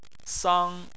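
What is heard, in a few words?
"sang" tone 1, Chinese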